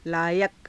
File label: "laayaka", Hindi